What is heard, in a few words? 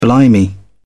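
"blimey", British English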